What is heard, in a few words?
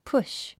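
"push", English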